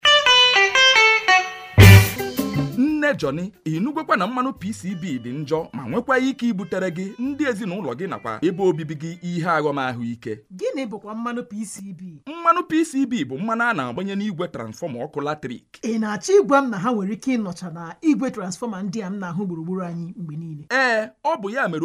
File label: Radio jingle in Ibo language